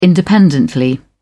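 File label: "independently", British English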